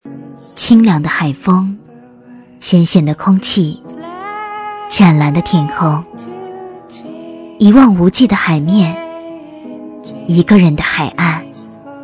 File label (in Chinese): 女声; 清凉的海风